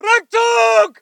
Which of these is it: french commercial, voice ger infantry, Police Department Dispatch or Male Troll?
voice ger infantry